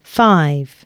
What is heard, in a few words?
announcements, female